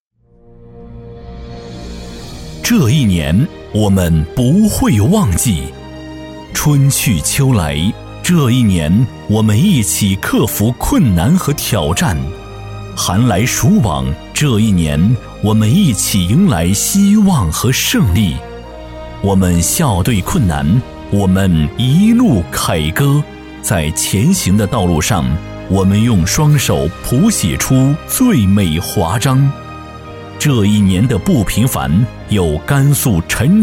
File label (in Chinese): 男, 颁奖典礼片; 人物风采